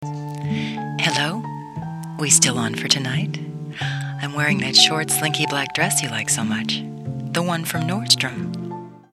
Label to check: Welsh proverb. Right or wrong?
Wrong